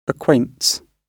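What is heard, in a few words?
"acquaints", British English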